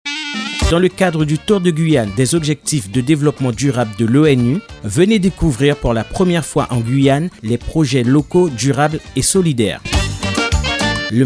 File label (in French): spot radio; tour de guyane des objectifs; Comité 21